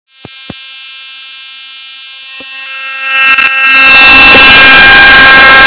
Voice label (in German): Sprachmemo